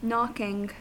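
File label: "knocking", American English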